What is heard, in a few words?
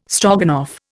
"Stroganoff", Indian